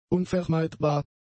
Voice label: "unvermeidbar", German; adjectives